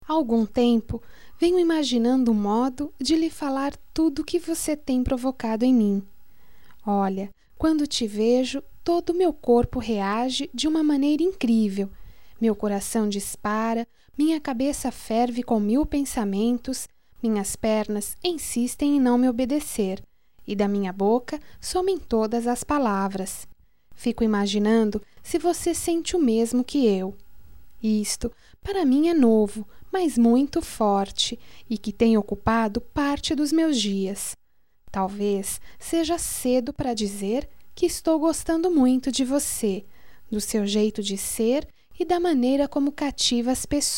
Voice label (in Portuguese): Conquista, fem